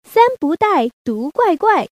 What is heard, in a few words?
sanzhang, woman